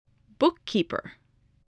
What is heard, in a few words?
"bookkeeper", spelling